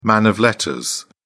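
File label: "man of letters", English, uk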